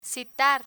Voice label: "citar", Spanish; verbs ar